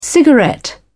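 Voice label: "cigarette", British English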